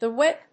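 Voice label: "The whip", English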